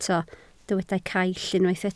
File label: "caill", Welsh